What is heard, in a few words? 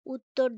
Female